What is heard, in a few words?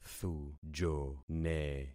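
"thujone", concatenated syllables; Affixing experiment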